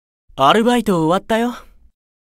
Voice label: job notification voice; Simeon